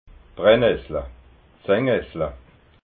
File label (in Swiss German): "brenessla", alsace